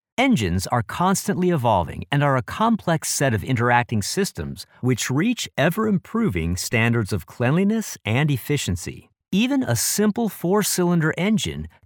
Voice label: male american voice over narrative, english american